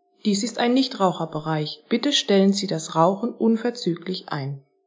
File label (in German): ansage zigaretten rauch melder